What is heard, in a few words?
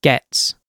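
"gets", British English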